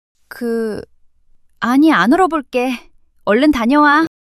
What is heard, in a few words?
shy; monologue